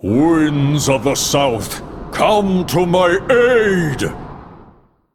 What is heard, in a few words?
Male Elemental Play